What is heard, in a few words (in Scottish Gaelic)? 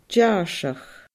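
"dearrsach", Scottish Gaelic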